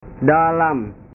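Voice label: "dalam", Indonesian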